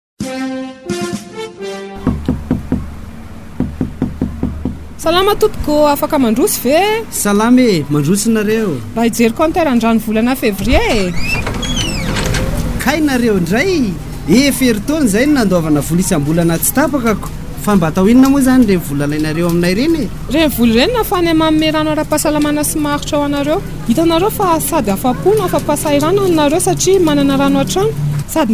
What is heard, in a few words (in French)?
spots radio; fikrifama; gret; inter aide; medair; protos